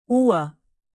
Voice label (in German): uhr, native; alphabet de